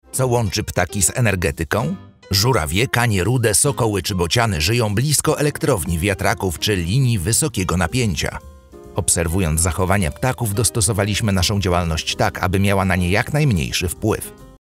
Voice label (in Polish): reklama